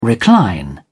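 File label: "recline", British English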